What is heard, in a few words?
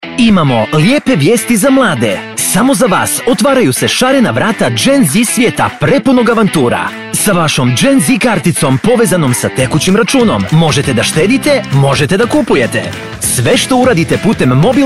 Commercial, bosnian